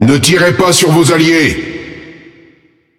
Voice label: Speech Friendly Fire Hit Alert, FR; World Of Warships